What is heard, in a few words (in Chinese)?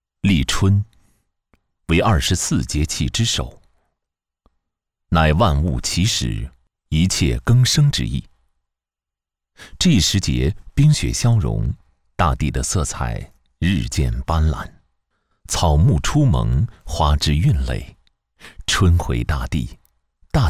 男 航拍中国感觉; 立春节气; 文旅配音; 文旅节气样音